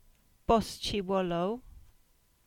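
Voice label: "Bosullow" Cornish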